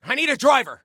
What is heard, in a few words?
Need Driver, Soldier Male; Soldier Male 01